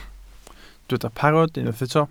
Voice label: "parod", Welsh